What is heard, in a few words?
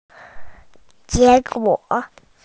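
"result", Mandarin, hearing-impaired; jie2guo3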